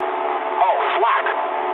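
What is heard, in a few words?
English damaged aa mood low; speech; War Thunder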